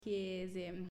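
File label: "Chiesa", Italian, female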